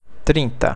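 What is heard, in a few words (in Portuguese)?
"trinta", Portuguese; ACBr Fala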